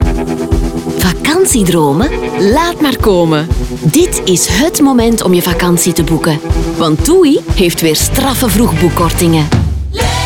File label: radio; tuï costa